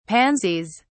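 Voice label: "pansies", British English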